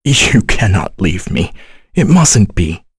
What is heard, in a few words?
vox, en; Kain; kingsraid; voices heroes en